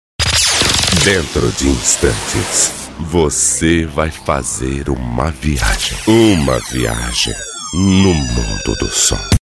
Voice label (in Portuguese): Vinheta Dentro De Instantes